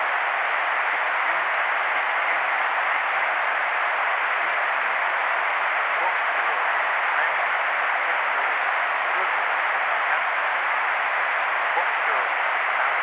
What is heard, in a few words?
cqcqcq analog, speechsamples